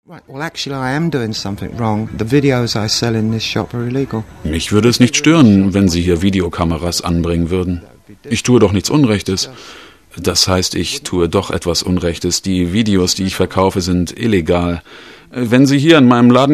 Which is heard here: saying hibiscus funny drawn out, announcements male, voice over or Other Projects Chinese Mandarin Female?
voice over